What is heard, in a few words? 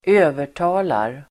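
"övertalar", Swedish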